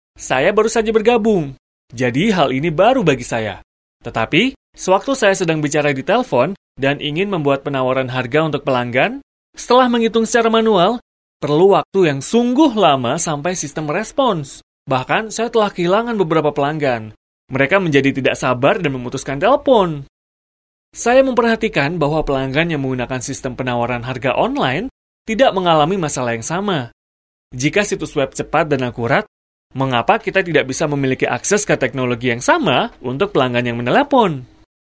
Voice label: Indonesian M Corporate; voice over